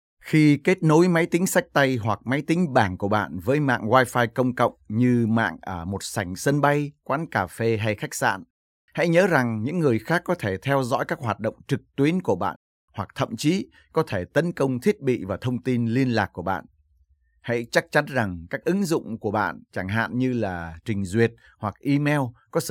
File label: Vietnamese; foreign languages